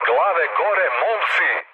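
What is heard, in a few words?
Serbian near waypoint mood med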